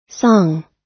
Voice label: "song", English